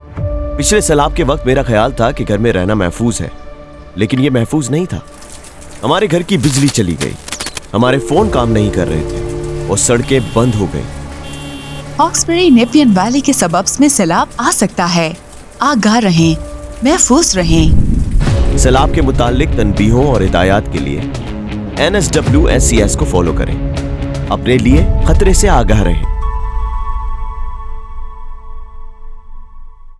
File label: radio ad urdu; know your risk